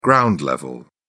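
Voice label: "ground level", British English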